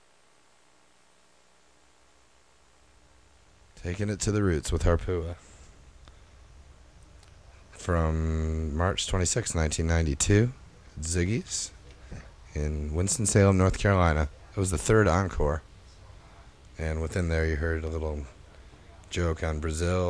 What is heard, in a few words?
radio banter; Big Cypress